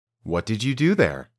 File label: Dictation